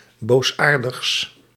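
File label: "boosaardigs", Dutch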